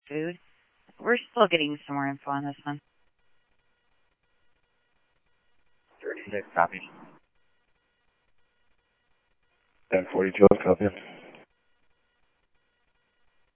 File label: Mesa Simulcast, MESA PD, scanner audio; 2 Centrl; MesaPoliceDep